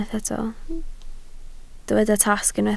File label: "tasg", Welsh